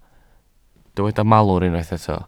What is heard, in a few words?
"malwr", Welsh